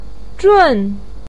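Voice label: "zhun", Mandarin Chinese syllable, fourth tone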